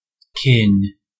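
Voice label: "kin", American English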